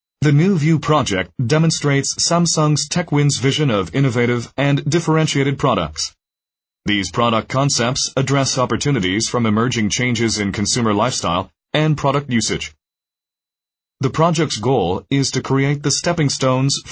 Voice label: American English, M